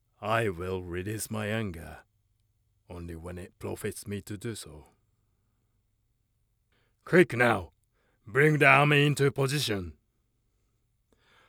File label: english video games